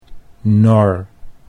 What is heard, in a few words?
"nor", Dutch